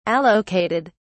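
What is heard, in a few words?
"allocated", British English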